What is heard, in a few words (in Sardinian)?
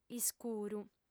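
"iscuru", female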